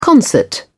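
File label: "concert", British English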